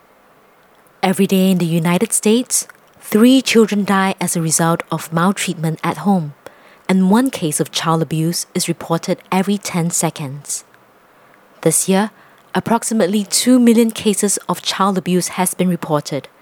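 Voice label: Hong Kong English Public Service Announcement, Cantonese Female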